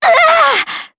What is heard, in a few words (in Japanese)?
Damage, jp; voices; heroes; Yanne; kingsraid